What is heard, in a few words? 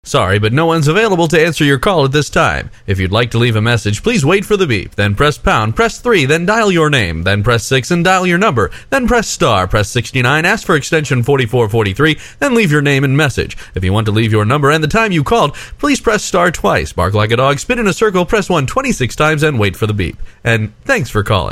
call center agent